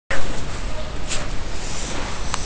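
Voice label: "qi3", Mandarin Chinese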